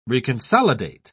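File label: "reconsolidate", British English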